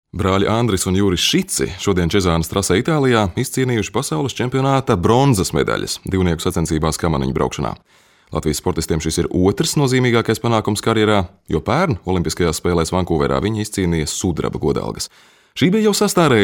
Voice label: latvian m narration, Latvian voice; voice demos